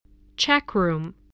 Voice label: "checkroom", English, voice